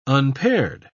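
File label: "unpaired", British English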